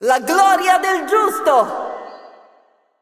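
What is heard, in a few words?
Italian, assist; VO; Kayle; bloom minigame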